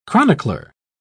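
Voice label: "chronicler", British English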